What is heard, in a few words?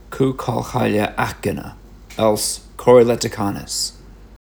Cú Collchaille Pronunciation